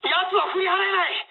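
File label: aircraft damaged mood high, speech japanese; War Thunder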